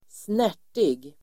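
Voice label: "snärtig", Swedish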